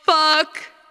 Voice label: "fuck", expletives